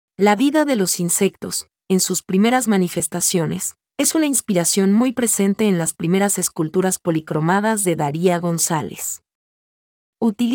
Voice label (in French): espagnol